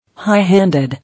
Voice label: "High-handed", English

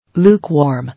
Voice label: "Lukewarm", English

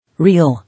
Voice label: "Real", English

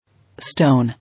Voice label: "Stone", English

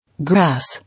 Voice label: "Grass", English

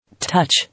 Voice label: "Touch", English

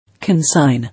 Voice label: "Consign", English

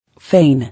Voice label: "Feign", English

